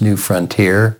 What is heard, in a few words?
LoFi Phrases, LoFi Vocals; Vengeance Samples